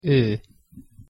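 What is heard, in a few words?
"o hosszu ekezetes", Hungarian pronunciation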